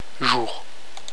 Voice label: "jour", french